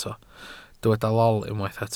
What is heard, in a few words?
"lol", Welsh